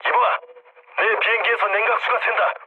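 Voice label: Korean damaged water mood low; War Thunder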